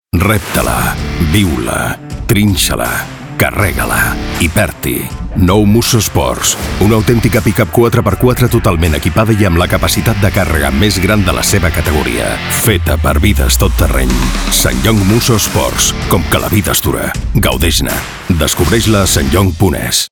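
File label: RADIO, Catalan; SSANGYONG MUSSO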